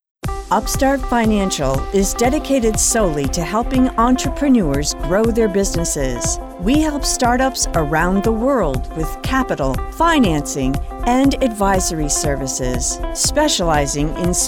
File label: NARRATION